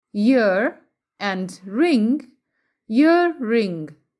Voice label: ear and ring, english; sun and light